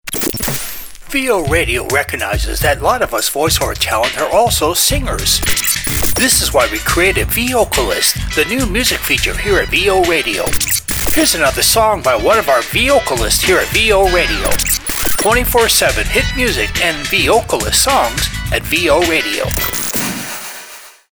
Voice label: vo radio promo vocalists